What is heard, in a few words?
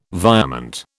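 "virement", British English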